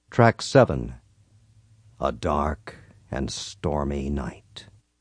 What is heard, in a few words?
dark and stormy, Zany SFX Announcer